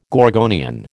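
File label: "gorgonian", American English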